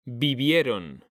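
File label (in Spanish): "vivieron", Spanish, male; mekanta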